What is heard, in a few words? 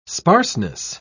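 "sparseness", British English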